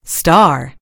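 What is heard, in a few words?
"star", American English; gcompris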